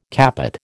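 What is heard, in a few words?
"capot", American English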